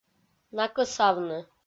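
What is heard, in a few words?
"накӧсавны", Komi, female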